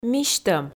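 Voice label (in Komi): "мисьтӧм", Komi, female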